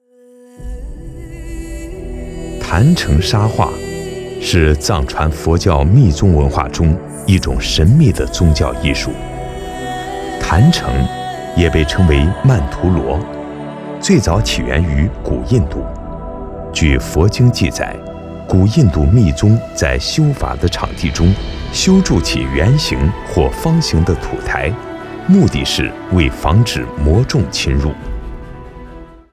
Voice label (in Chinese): 纪录片 人文历史, 男国; 沙画